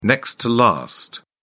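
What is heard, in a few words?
"next-to-last", British English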